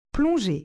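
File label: "plonger", French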